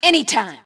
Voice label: Team Anytime, Female